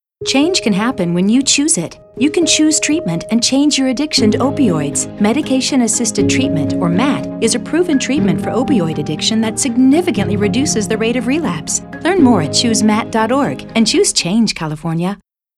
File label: Radio ENG